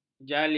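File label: "jalil", Malay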